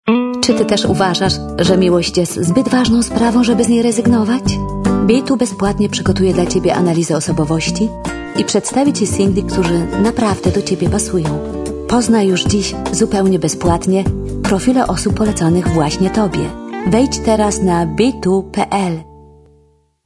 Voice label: pl voice over

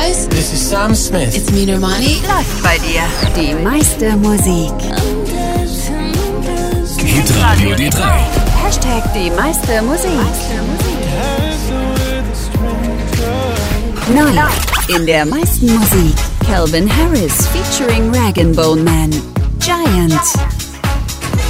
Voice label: stationvoice mix sommer

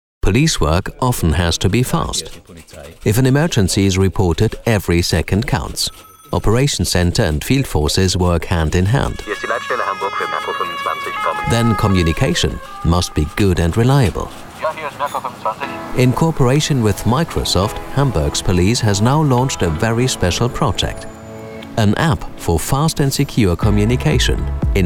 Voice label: polizei app en broadcast

